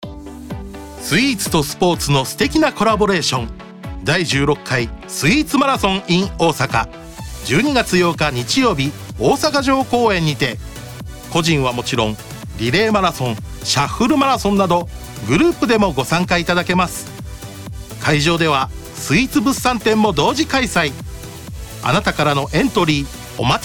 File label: CM Radio